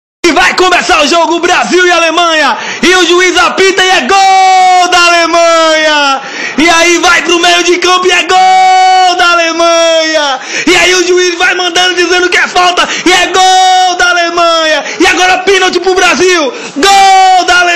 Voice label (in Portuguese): narração; brasil x alemanha